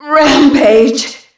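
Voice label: "rampage", female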